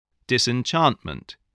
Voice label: "disenchantment", english spelling